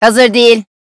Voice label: cant use; raze